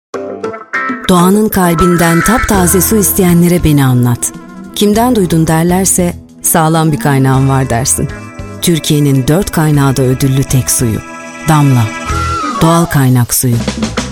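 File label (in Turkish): reklam, Turkish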